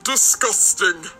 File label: Disgusting